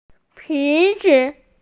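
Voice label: "bi2zi5", Mandarin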